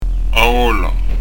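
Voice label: "a gola", Prononciation du corse; a w'òla; Son "adouci"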